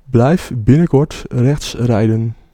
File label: Ah Keep Right, Dutch, male